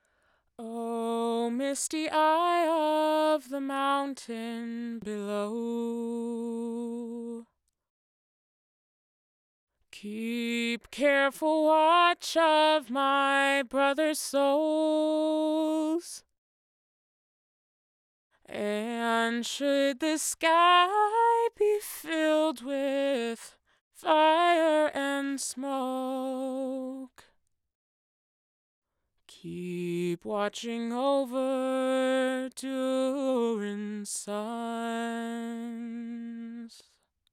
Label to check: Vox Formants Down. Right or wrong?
Right